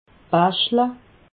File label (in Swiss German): "baschle", alsace